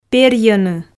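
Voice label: "перйӧны", Komi, female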